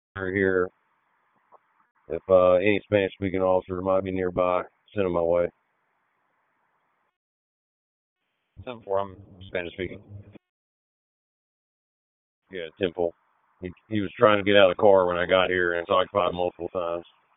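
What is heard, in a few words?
Police Southwest District Dispatch, p25; Raleigh; wake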